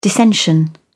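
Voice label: "dissension", British English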